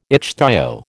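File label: "ichthyo", American English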